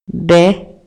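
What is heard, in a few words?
Maya, male; INALI; MayaYuc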